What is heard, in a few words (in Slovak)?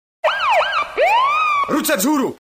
sms hlásky cz